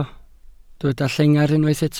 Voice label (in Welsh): "llengar" Welsh